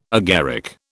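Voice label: "agaric", British English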